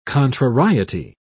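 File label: "contrariety", British English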